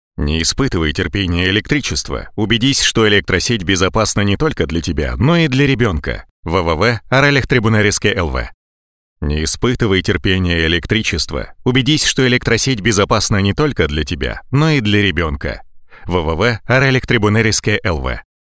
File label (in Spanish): Masculino